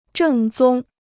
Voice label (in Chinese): "正宗", Chinese